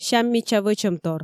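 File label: "сяммича вӧчӧмтор", Komi, female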